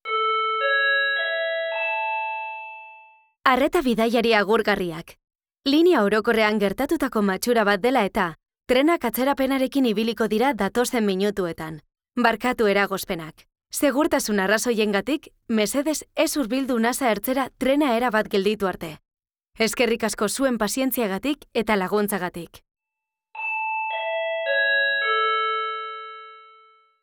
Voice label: Medios alternativos Euskera

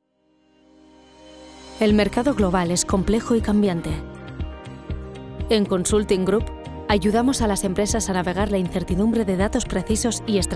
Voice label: Corporativo castellano

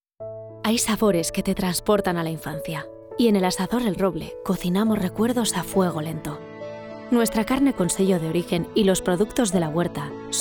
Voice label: publi radio Castellano

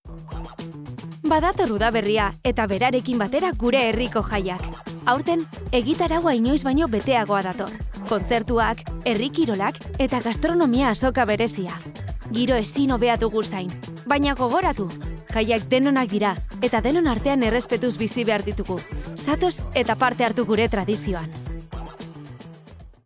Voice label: Publi Euskera

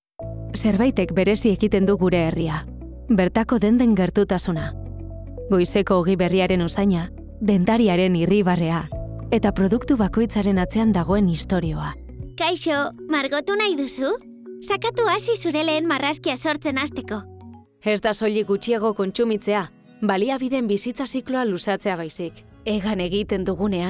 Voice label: Euskera

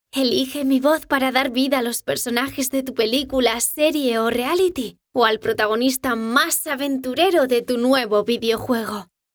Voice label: mezcla DOBLAJE CAS

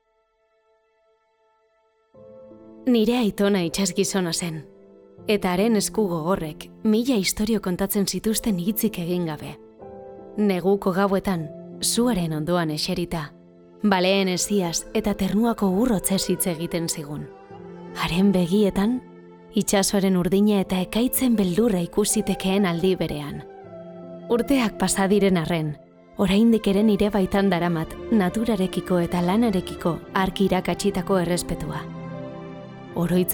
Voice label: Narrativo Euskera

